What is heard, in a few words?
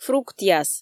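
"фруктъяс" Komi, female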